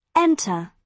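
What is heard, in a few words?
"enter", British English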